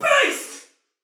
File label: Attack Normal, Female, Soldier; Dialog; Barks; Battle; Sinecure